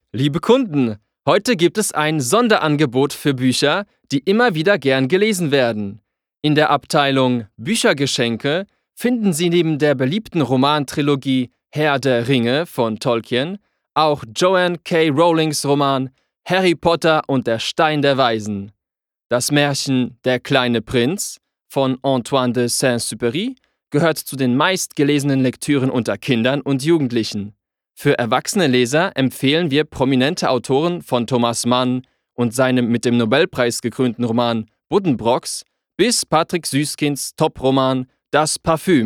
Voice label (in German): DE